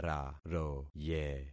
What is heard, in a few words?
"raroye", concat syllables; Affixing experiment